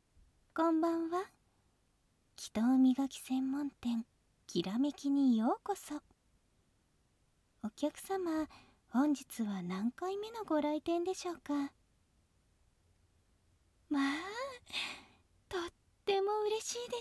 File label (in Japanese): 隠語痴女コース, ASMR; SEなし